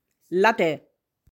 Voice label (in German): "Ladder" "Leiter"